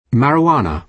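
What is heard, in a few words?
"marijuana", British English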